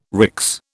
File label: "RICS", British